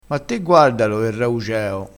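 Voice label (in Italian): "raugeo", vocabolario dialettale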